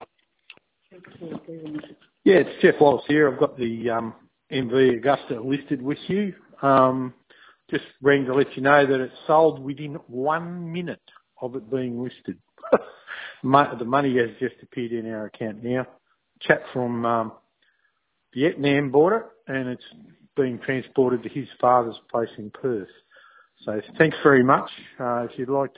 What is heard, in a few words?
voicemail